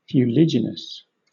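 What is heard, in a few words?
"fuliginous", British English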